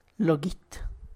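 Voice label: tlacochahuaya, Zapotec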